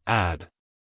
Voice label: "add" us male